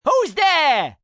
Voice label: Who's there, male; Talking; Questions; human voice speaking; Voice Box